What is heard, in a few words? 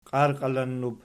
"qiarqalinnub", Archi